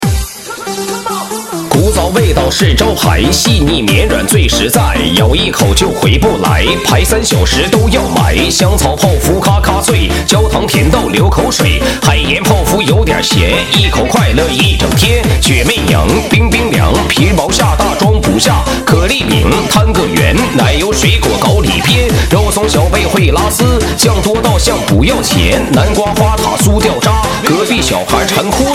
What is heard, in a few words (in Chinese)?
广告另类